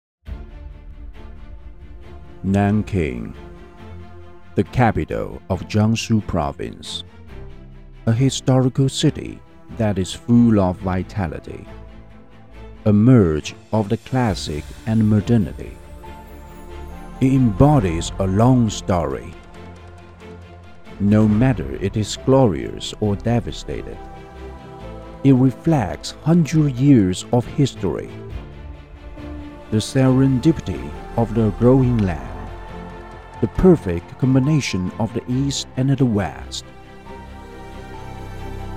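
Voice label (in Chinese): 英文宣传片; 南京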